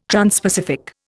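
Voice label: "transpacific", Indian English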